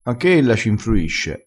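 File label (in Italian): "infrui", crespinese dialettale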